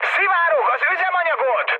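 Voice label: tank damaged, mood med, Hungarian; War Thunder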